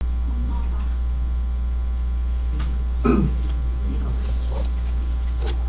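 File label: "also", spoken word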